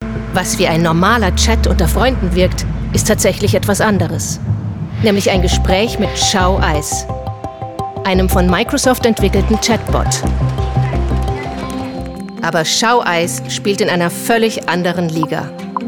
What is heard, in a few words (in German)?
Voice-Over Sprecherin